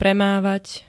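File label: "premávať", Slovak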